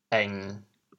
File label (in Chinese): "翁", 潮州话